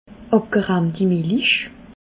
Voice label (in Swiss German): "abgerahmti milich", alsace